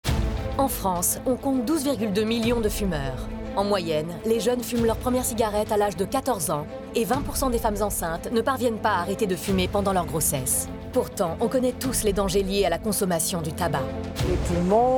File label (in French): Voix off émission; Promis c'est la dernière; TF1; la nouvelle émission sur TF1